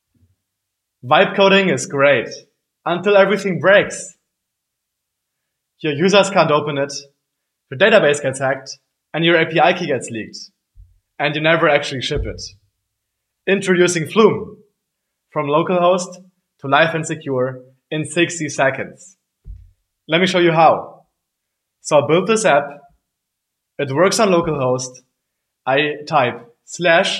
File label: voice rocketlist style